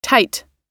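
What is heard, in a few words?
"tight", English; oxford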